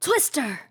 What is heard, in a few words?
twister, RPG Voice; RPG Voice Starter Pack